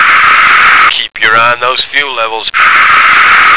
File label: "keep your", American